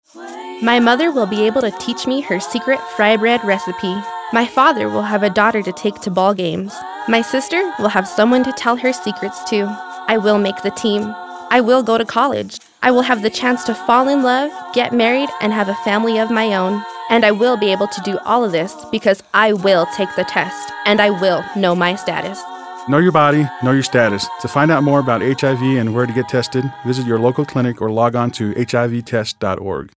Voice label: Radio PSA Teen